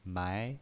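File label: "mai", pinyin voice, Mandarin Chinese, second tone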